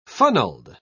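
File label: "funneled", British English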